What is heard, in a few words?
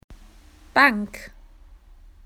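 "bench", Australian English; PictureDictionary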